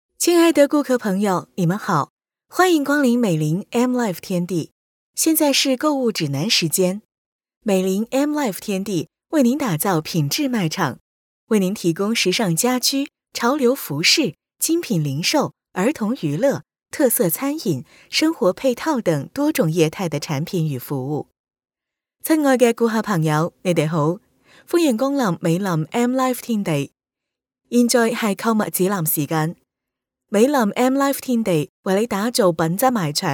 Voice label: 中英粤样音三语商场温馨提示

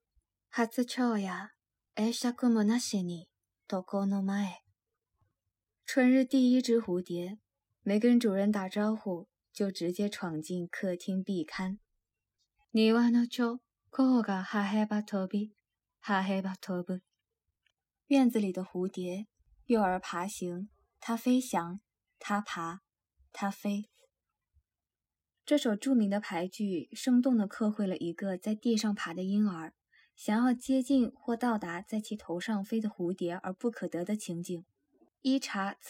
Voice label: 中日俳句朗读

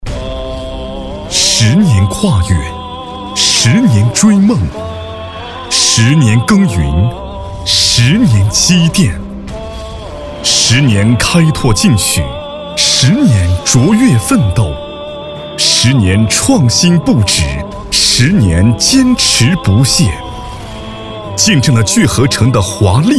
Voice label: 起伏大气; 起伏大气十年跨越

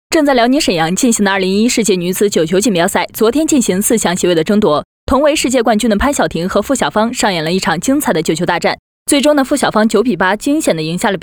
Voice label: 解说新闻赛事解说

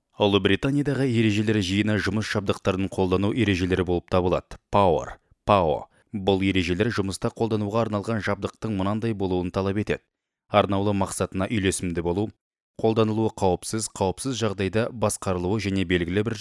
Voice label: 哈萨克语男干音

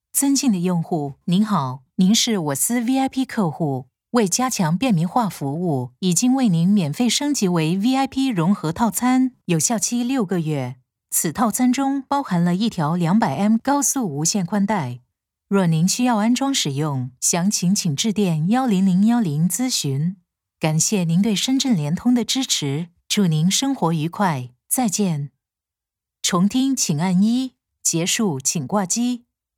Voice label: 普智能客服; 深圳联通

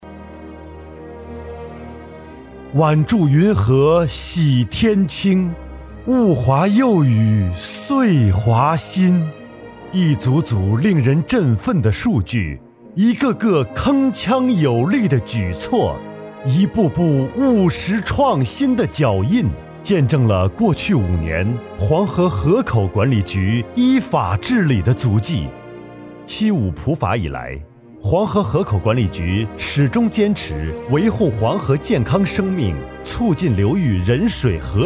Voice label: 大气恢弘; 大气恢弘黄河河口

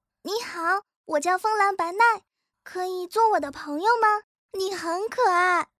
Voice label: 女童温柔加油

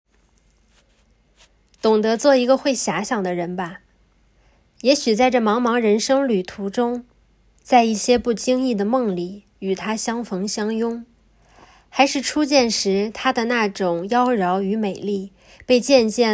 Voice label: 心灵读物配音

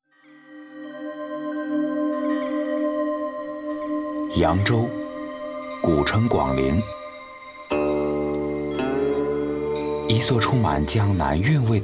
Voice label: 纪录 扬州人文

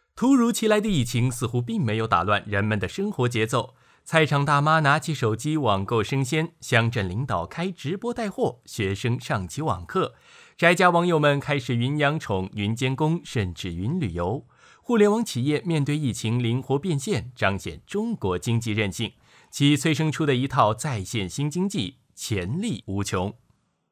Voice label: 干练播报

